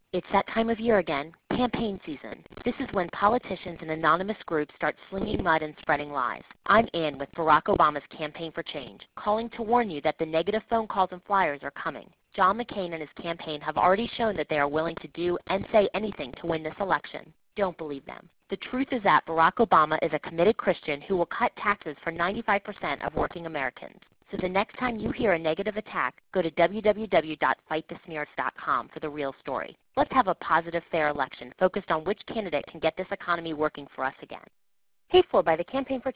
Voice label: voicemail